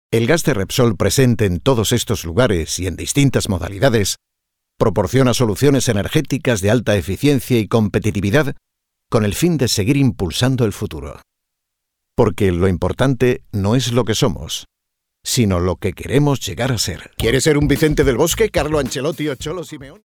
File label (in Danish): Reklame VO